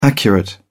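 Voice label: "accurate", British English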